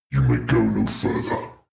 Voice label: demon dark voice thick speak go no further

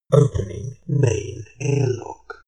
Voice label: shuttle male system status

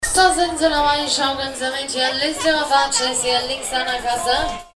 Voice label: octoberfest animateur

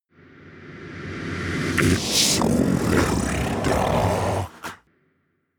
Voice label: demon dark voice reverse its dark

